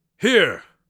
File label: here, Mage Voice; Mage Voice Pack